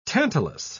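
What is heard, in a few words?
"tantalus", British English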